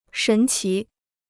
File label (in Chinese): "神奇", Chinese